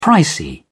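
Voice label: "pricey", British English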